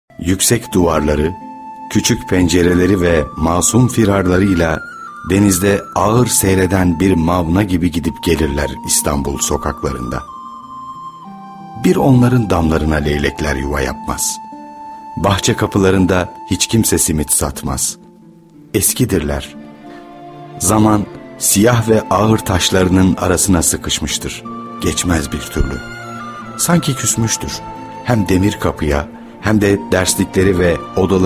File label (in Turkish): belgesel